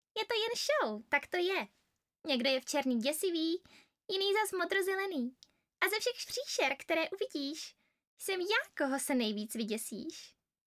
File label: czech